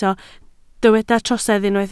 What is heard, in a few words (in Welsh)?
"trosedd" Welsh